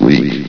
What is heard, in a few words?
"leak", vox; Source Engine; bshift